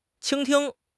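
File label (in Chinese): "倾听", Chinese; HSK6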